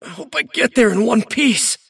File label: teleport pain; davion; dota